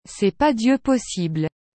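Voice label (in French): "Cest pas Dieu possible", French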